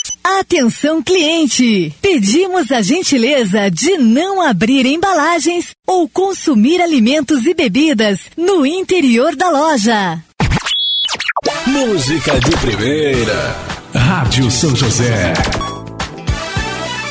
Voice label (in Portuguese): radio indoor supermercado